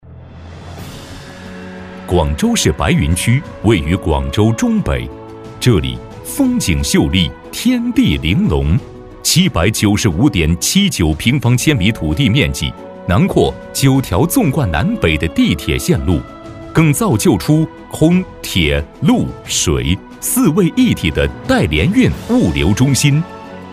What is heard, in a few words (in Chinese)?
男 宣传; 广州白云区